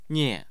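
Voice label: "nian", Mandarin Chinese, tone 4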